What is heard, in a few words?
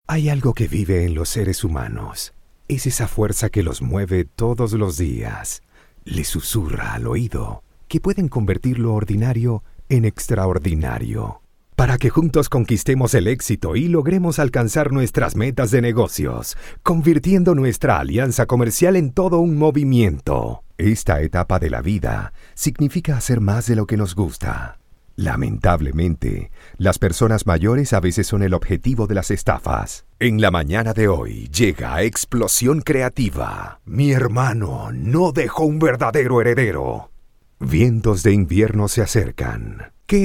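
Latin American Spanish, General; GoLocalise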